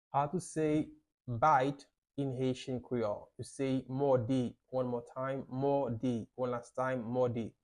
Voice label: How to say Bite in Haitian Creole Mode pronunciation by a private Haitian tutor